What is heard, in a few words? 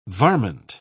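"varment", British English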